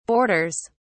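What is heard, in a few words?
"borders", British English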